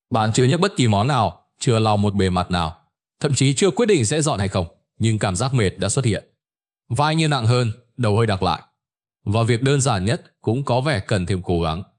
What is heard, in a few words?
narration; Vi Sao Mot Can Phong Lon Xon Co The Lam Ban Met Moi; StickerMan